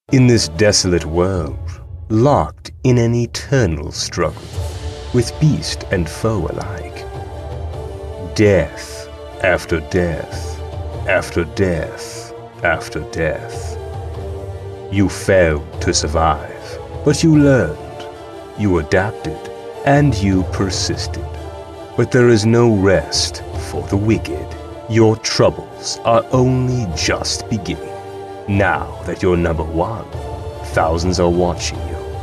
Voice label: Evil Character